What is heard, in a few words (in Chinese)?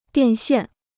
"电线", Chinese